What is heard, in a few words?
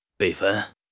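"备份", Chinese; HSK6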